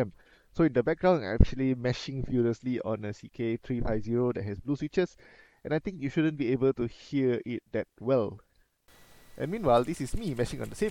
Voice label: AI Noise Cancellation; TUF Gaming B550M Plus